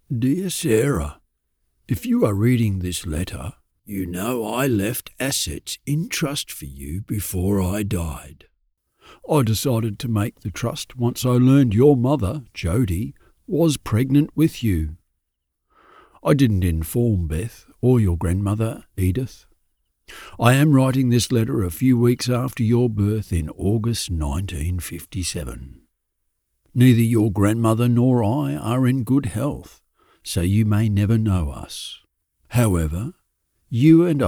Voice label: Grandfather; Feivel